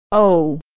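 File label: "o", American English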